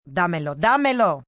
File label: Give It To Me, female, Spanish; Calls, action; foriegn; Talking Sound Effects; Sound Effects:Voice; VoiceBox